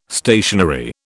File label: "stationary", British